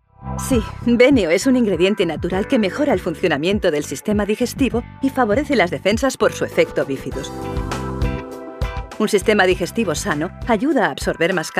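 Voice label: Spanish Spain, female, voice over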